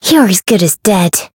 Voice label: variable chant; leviachan

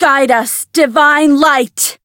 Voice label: variable chant; anaberu

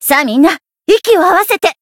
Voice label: Voice jp

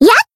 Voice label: attack, jp; nekoko

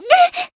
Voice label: Voice damaged jp

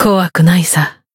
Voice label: finish, jp; clarte

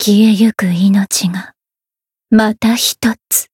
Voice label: lancer win, jp; violet

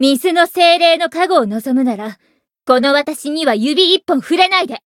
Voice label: story jp

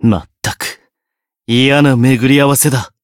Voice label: encount fear, jp; zeviro

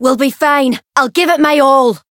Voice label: voice chant

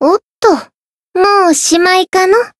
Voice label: win, jp; leviachan

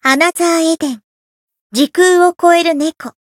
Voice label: Voice sincere, jp

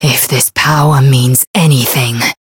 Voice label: lancer variable chant; violet

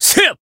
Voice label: Voice attack jp; claude

